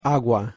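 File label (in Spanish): "agua", Spanish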